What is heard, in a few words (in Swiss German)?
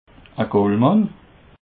"gollmann", Alsace